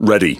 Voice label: Pirate Speech Pilots Bomber Ready; World Of Warships